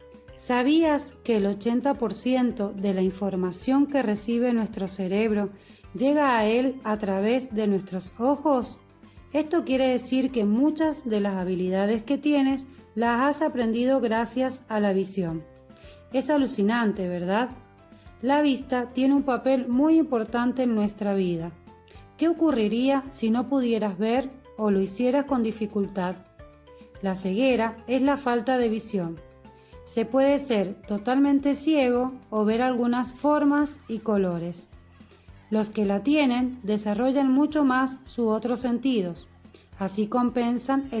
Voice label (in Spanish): Cuento locutora para niñes; Cuento Aurora